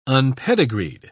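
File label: "unpedigreed", British English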